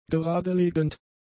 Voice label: "geradelegend", German; adjectives